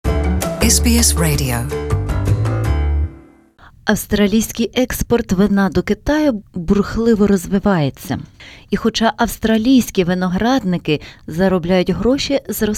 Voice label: ukrainian; CHINESE WINE; SBS Ukrainian

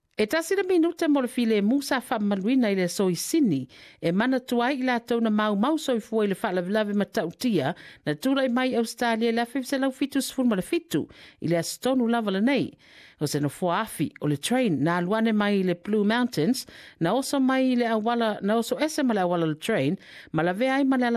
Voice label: samoan; 40 Tausaga talu le faalavelave i Granville; SBS Samoan

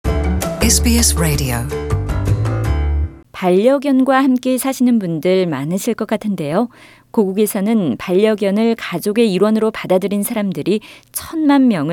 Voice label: korean; SBS Korean; 호주, 24시간 동안 반려견 산책 안 시킬 시 최대 벌금 4천 달러

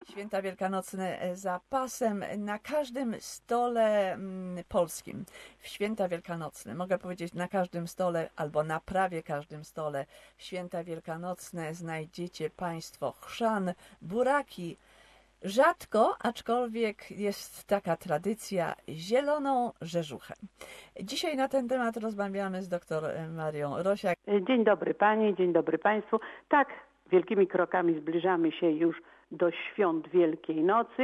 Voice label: polish; The Must-Haves on Easter's table; SBS Polish

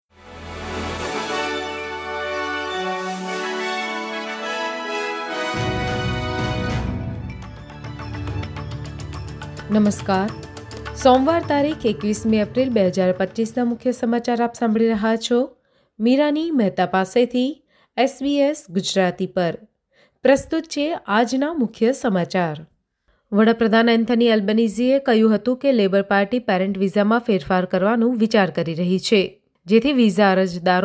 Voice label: gujarati news bulletin; SBS Gujarati Australian update: 21 April 2025

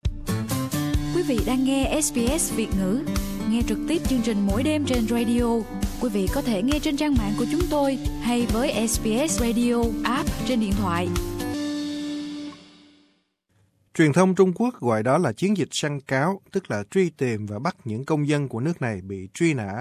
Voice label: vietnamese

